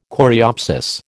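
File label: "coreopsis", American English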